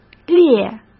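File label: "列", Mandarin Chinese; chinese-flashcards; hsk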